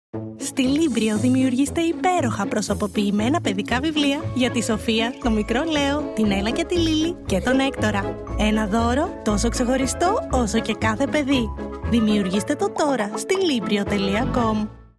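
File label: Commercial, Greek; GoLocalise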